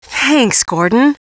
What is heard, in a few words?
quiet thanks